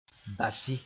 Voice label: "basɩ", Mooré; couscous